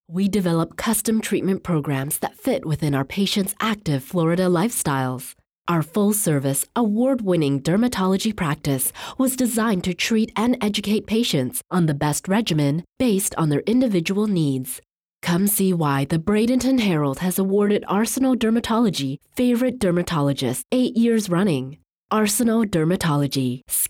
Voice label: Sultry Read VO; voice-over